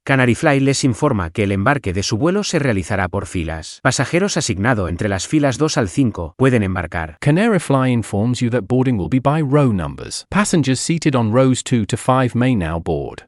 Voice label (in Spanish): Embarque, Announcements; CanaryFly